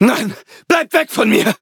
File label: levelup, de; cookie run